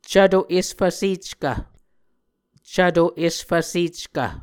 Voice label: "cvto esfvseckv", muscogee